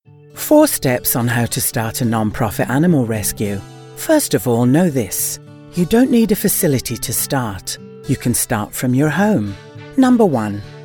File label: Starting a non profit eLearning, voiceover